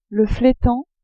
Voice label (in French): "fletan", french